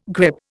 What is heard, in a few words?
"grippe", Indian